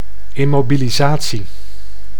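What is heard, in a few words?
"immobilisatie", Dutch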